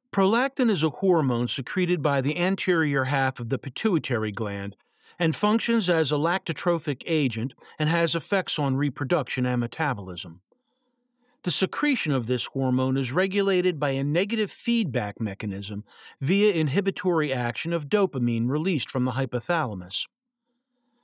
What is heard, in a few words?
Medical Narration Fungal